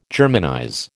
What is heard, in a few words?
"Germanize", American